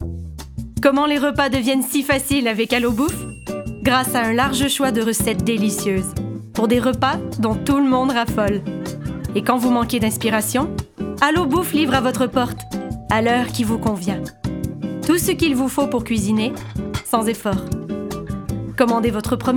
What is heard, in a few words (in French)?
Publicite; AlloBouffe